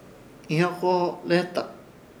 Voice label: "Ihyokoholeheta" llevar con la cabeza, Ishir Chamacoco